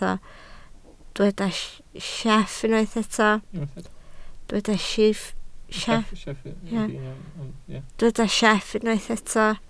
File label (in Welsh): "sieff", Welsh